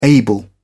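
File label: "able", British English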